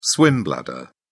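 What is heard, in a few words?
"swim bladder", British English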